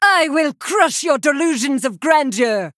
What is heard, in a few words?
Female Blood Elf Play